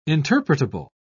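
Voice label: "interpretable", British English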